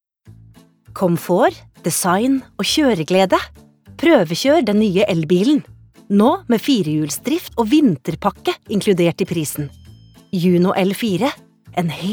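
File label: Norwegian advert, norwegian female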